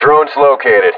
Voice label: swarm outbreak in sector, combine soldier; npc